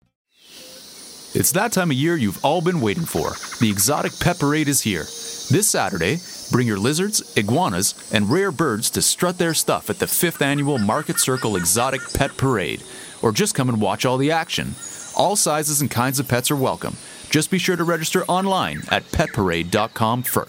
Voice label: Podcasting